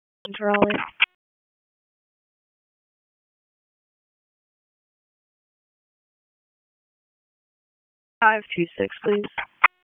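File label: Raleigh Police Southeast District Dispatch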